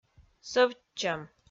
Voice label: "сӧвтчӧм", Komi

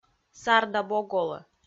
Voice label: сар да бог олӧ, kv_proverbs

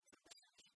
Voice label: "тшупсьысь", Komi

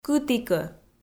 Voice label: "кытікӧ" Komi

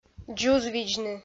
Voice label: "дзузвидзны", Komi

